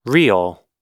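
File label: "real", American English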